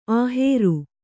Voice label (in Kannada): "ಅಹೇರು", Kannada